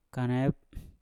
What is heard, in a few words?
"kanep", Mpur; kumbang